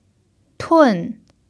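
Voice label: "tun4", Mandarin pinyin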